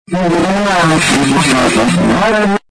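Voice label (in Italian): "il re l'apre", Italian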